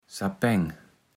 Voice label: "Sapäng", Platt

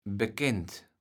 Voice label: "bekend", kaevels platt

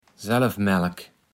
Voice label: "Selfmälk", platt

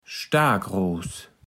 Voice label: "Staakros", platt

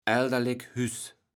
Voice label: "alderlek Hüß", kaevels platt

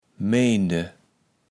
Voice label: "meende", Platt

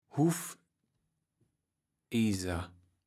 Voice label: "Huufijser", kaevels platt

